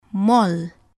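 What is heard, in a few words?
"moll", English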